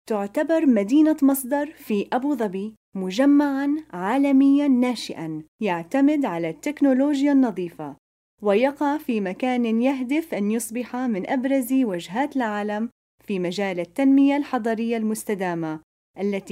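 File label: Arabic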